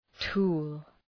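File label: "tool", English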